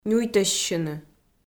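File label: "нюйтӧссьыны", Komi, female; kpv audio dict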